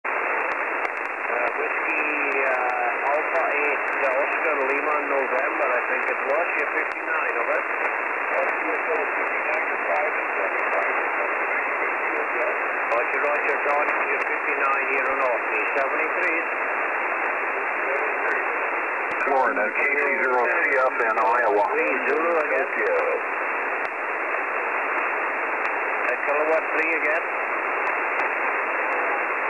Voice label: ssb 160; camano